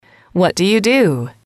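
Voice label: "what do you do", English, female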